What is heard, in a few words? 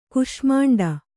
"ಕೂಷ್ಮಾಂಡಿ", kannada